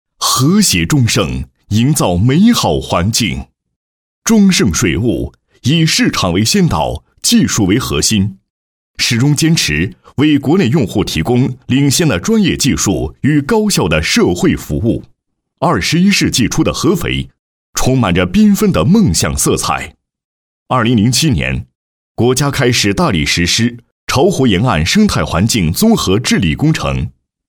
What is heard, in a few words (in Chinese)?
男 企业形象宣传 形象专题; 水务发展有限公司